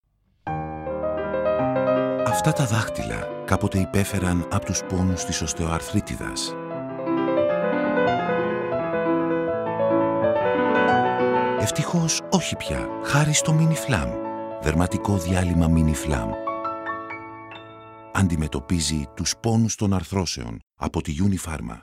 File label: TV SPOT; Miniflam